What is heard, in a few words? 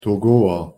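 "togoer", Luxembourgish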